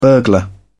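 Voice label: "burglar", British English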